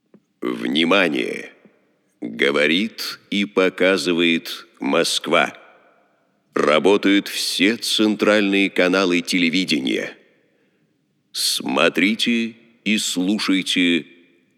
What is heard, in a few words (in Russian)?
Диктор СССР; parody